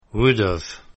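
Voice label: "would've", English contraction; Contractions Pronunciation Practice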